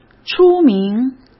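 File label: "出名", Mandarin Chinese; chinese flashcards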